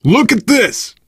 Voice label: look here, biker, survivor voice; Left 4 Dead 2

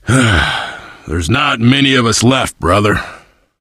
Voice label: loss, survivor voice biker; Left 4 Dead 2

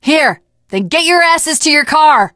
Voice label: finale gas, teengirl; Left 4 Dead 2

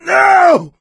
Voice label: incapacitated injury, voice; Left 4 Dead 2; coach

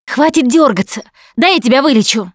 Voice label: Heal Other, russian; Producer

